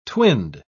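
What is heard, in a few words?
"twinned", English, British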